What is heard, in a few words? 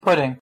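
"pudding", English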